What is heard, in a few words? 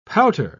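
"pouter", British English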